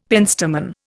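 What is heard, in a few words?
"penstemon", Indian English; Dictionary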